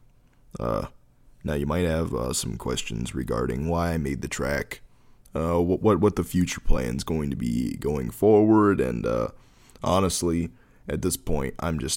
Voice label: Drop Brief Announcement